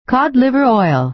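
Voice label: "cod-liver oil", American English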